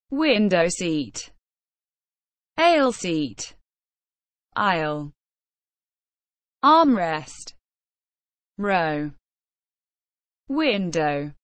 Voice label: inside an airplane